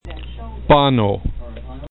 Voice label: "bano", esperanto